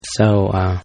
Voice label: Guy Says SO UHHH; Talking; Voice

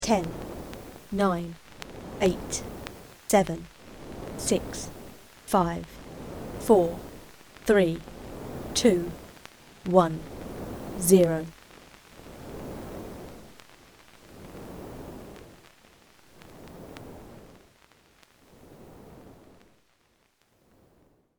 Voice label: Old Vinyl Dj Countdown British Female Voice; Dj Sound Effects; spoken word

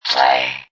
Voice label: Robot Voice "Play"

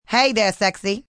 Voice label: Hey There Sexy, Sexy, fun, female; Sex Sound Effects; VoiceBox; Twistedtracks

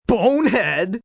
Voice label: Bonehead, male, annoyed; Attitude; (human voice speaking); Talking Sound Effects

